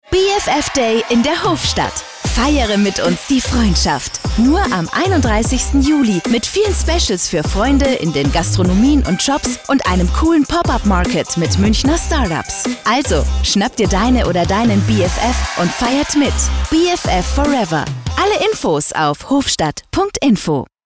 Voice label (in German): radiospot; Hofstatt; energy; sldesign